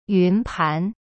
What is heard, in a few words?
"云盘 yun pan", Chinese; cyberchinese